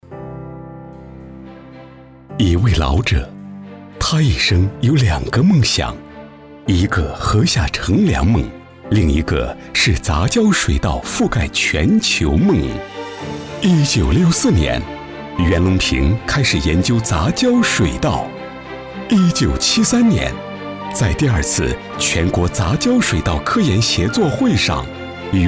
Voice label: 男 专题片; 袁隆平介绍

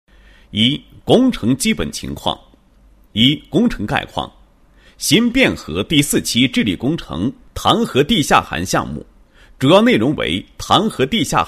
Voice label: 男 申报材料; 唐河地下涵申报治淮材料

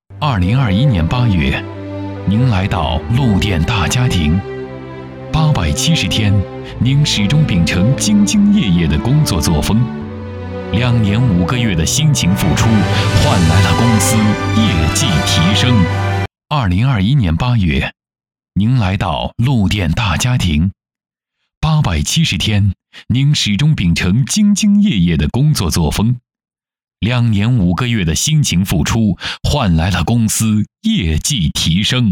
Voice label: 男 纪录片; 大家庭

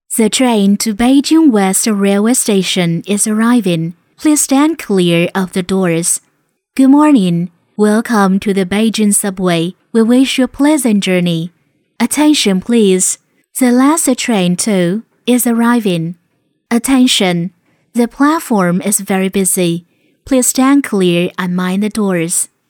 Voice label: 英女 英语播报 北京地铁

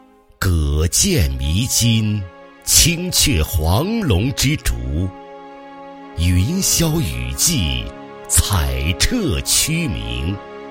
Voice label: 男; 桃花镇

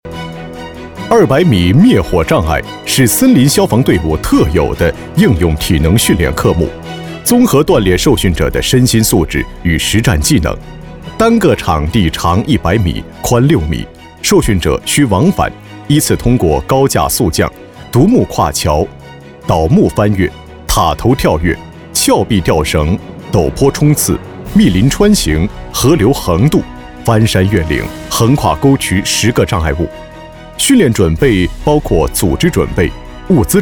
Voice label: 男 演练 200米灭火障碍

